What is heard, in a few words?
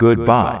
"goodbye" male; computers